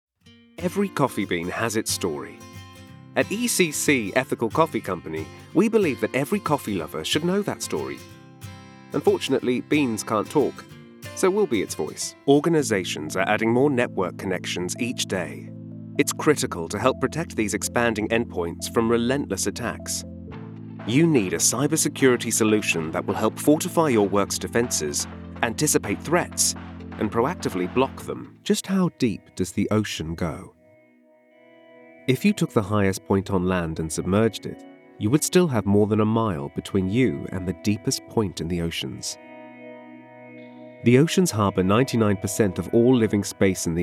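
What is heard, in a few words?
English UK Corporate; GoLocalise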